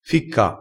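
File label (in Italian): "ficca", vocabolario dialettale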